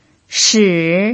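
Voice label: "shi" Mandarin Chinese, third tone, pinyin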